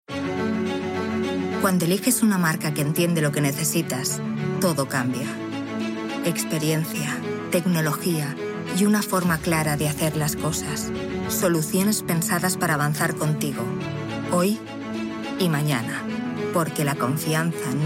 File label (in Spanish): CONFIDENT ESP